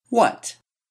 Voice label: speech Falling; pitch change; Macaulay Library